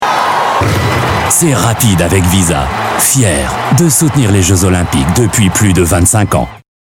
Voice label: french commercial